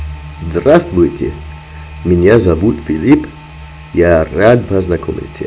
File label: Russian